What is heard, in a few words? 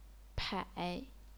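"pai", third tone, chinese pinyin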